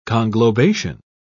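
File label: "conglobation", British English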